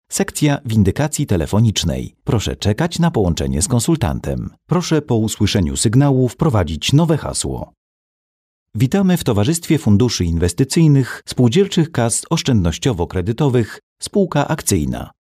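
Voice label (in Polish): IVR, male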